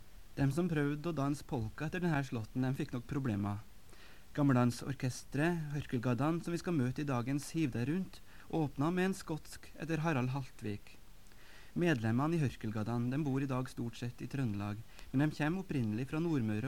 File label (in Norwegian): Tale